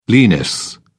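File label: "lenis", British English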